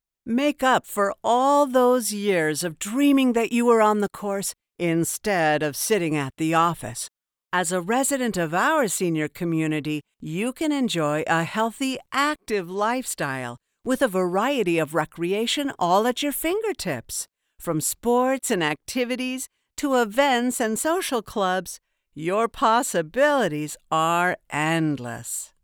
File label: enthusiastic excited high tone, voiceover; Retirement